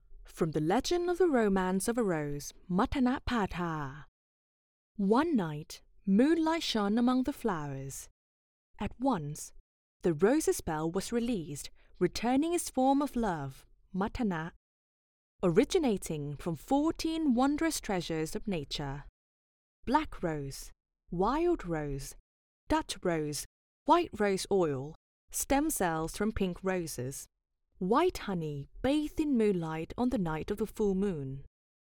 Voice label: female thai english with neutral accent commercial